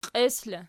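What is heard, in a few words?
"qies" locative, Archi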